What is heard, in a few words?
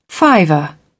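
"fiver", British English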